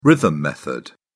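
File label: "rhythm method", British English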